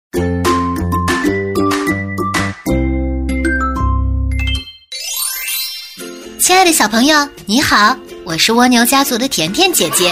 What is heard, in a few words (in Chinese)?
女 绘本故事; 我不想离开你; 可爱